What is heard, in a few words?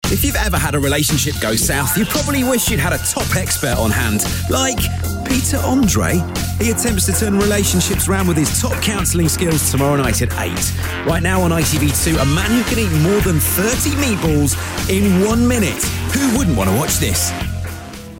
TV Continuity; ITV2